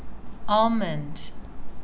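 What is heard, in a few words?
"almond", Canadian, female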